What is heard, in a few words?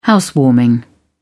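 "housewarming", British English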